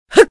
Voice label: man